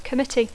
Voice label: "committee", English